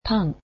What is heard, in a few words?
"bread", japanese